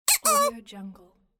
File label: cartoon chicken uh oh